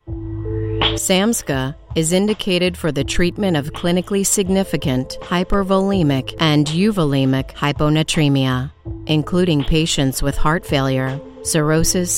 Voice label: Narration